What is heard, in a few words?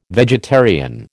"vegetarian", American